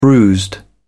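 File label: "bruised", British English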